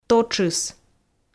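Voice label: "тотшыс", Komi, female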